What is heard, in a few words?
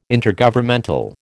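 "Intergovernmental", American English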